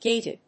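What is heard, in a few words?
"gated", English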